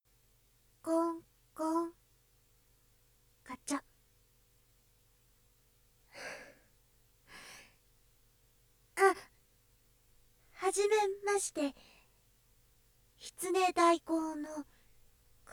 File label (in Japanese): ご指名ありがとうございます（立体音響ver）; 抜粋健全部分; くびわちゃん（首輪ちゃん）